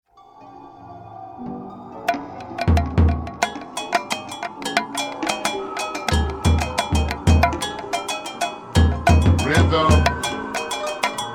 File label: Poetry In Rhythm